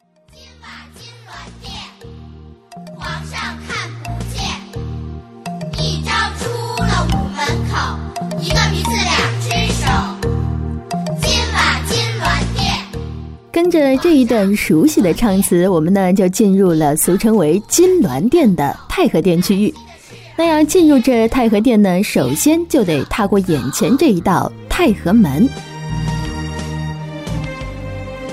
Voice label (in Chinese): 女 专题 故宫景点解说 电子导游